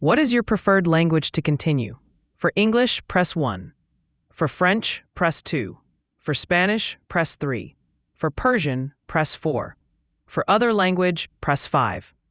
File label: question english